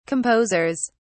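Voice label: "composers", English, British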